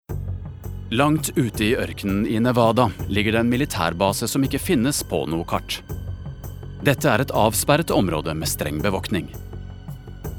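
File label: Norwegian documentary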